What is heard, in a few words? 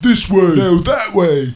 annoyed; ogre